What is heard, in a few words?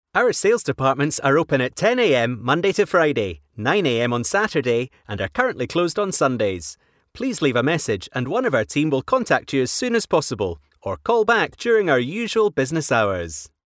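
British English, Scottish, male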